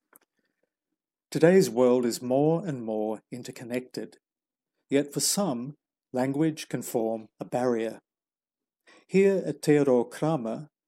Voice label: Voice Over Sample

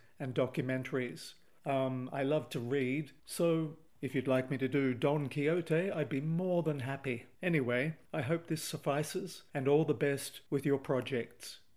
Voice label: About My Voice English

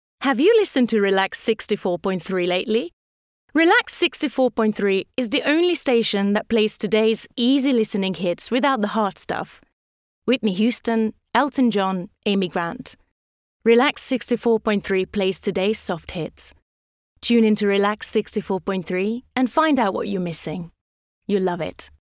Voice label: British VO; voice

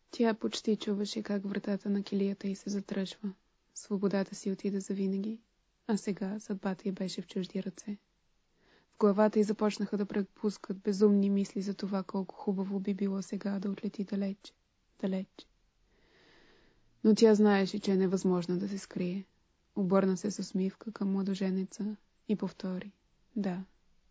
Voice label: speaking Bulgarian; voice

